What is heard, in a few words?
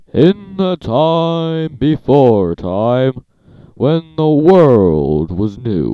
narrator test